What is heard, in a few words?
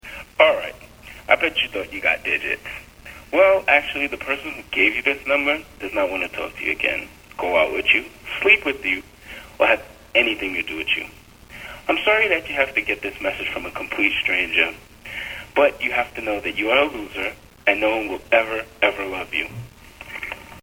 male